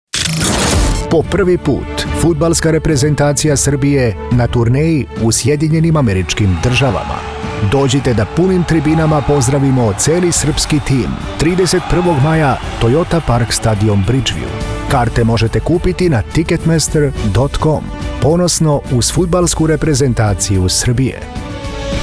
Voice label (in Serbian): voice over reklama video spiker glas srbija